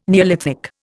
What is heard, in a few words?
"neolithic", Indian